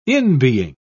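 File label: "inbeing", British English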